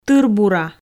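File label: "тырбура", Komi, female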